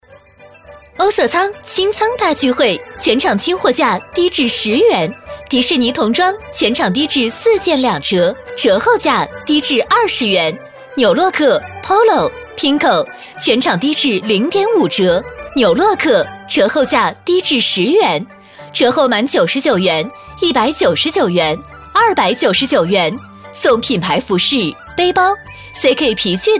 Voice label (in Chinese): 激情促销; 欧瑟仓